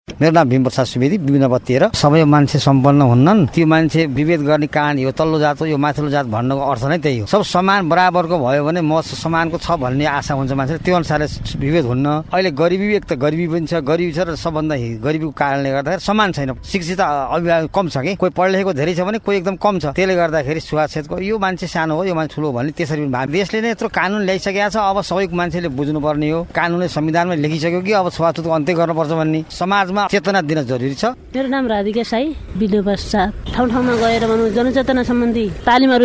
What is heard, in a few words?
voxpop